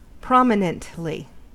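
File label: "prominently", English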